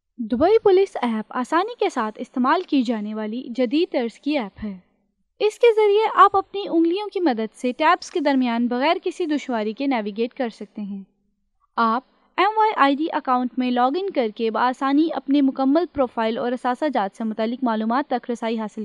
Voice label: narration e learning